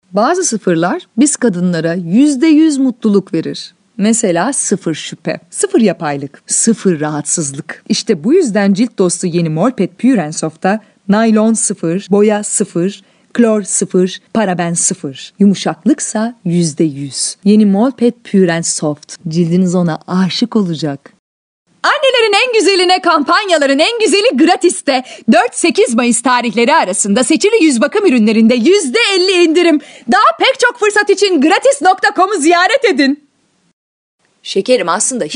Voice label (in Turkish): turkce kadin